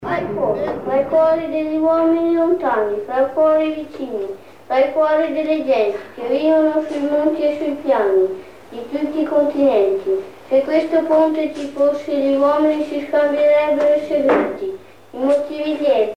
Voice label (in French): Enfant italien; Anti Jingles et Curiosites